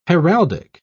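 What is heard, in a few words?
"heraldic", British English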